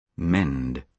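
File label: "mend", British English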